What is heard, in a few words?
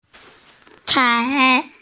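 "chai2", Mandarin Chinese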